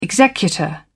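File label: "executor", British English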